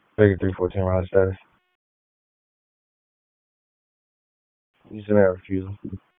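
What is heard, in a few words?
Police Northeast District Dispatch, p25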